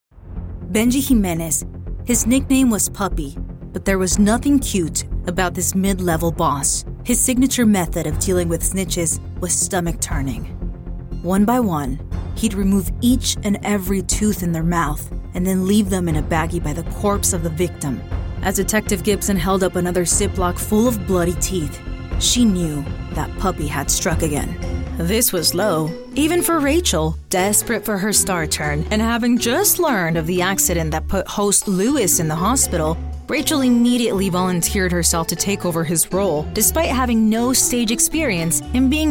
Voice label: voiceover, commercial, women; New York